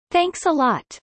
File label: fast; studying abroad